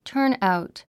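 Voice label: "turnout", English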